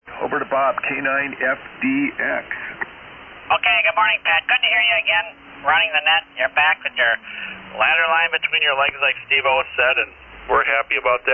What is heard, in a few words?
Yaesu FTDX-560 & D-104 Mic; Colgate, Wi.